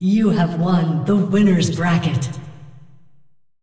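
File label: announcer female; tournaments win winners bracket generic; Clash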